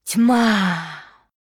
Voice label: Female Goblin Attack